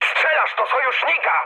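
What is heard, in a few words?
player friendly fire, mood high, Polish speech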